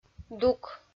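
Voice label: "дук", Komi, female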